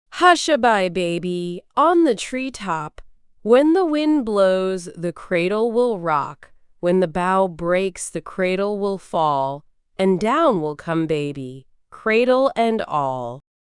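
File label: female US, classic rhymes; Hush a Bye Baby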